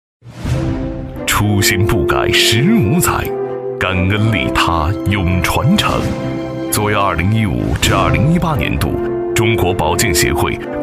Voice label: 男 宣传片 大气稳重; 赛鼎公司宣传片; 男29-时尚大气 大气浑厚

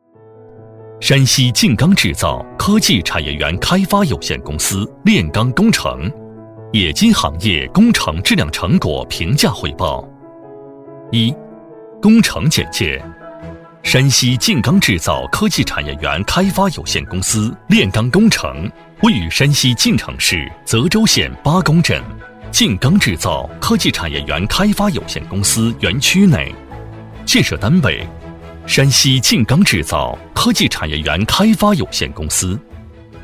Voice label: 男 企业汇报; 工程评价汇报; 男B2-厚重有力

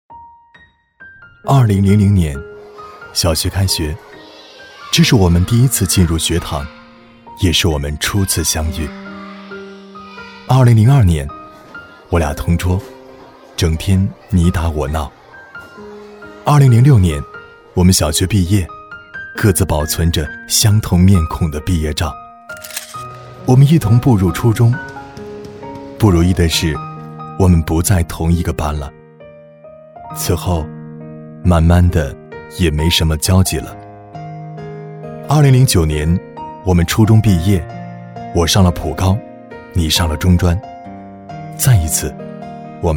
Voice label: 男 微电影旁白 温暖磁性 情感治愈; 男FXQ-大气恢弘; 质感磁性

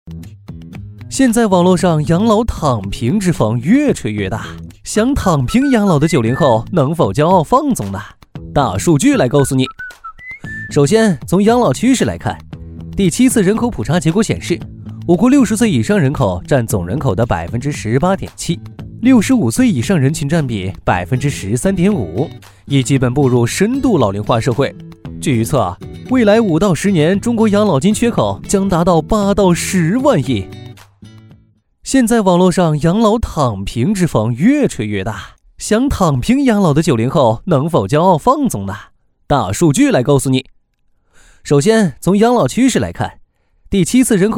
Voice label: 男, 夸张活力; 轻松活泼; 飞碟说; 养老躺平

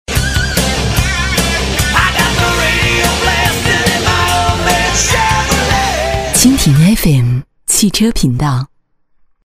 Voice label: 女 电台栏目; 质感磁性; 蜻蜓FM-汽车频道

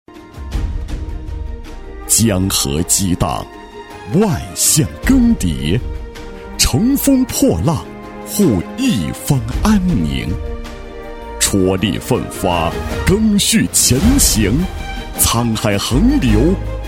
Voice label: 男 大气沉稳; 厚重大气 大气浑厚; 筑平安高堤

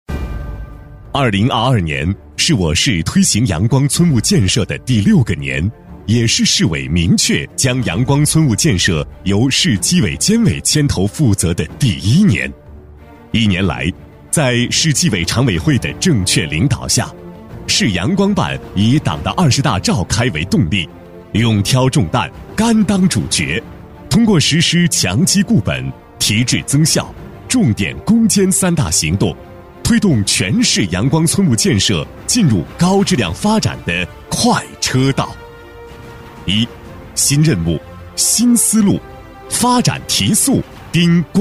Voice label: 男 党建工作汇报 大气抒情; 阳光村务建设; 高端大气 大气浑厚

